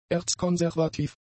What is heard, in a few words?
"erzkonservativ", German; adjectives